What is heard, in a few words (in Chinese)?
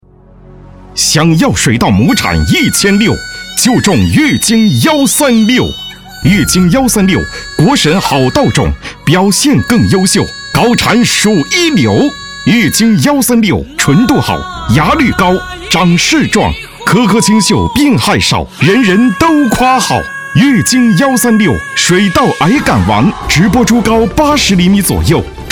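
男【激情电购】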